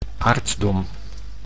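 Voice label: "aartsdom", Dutch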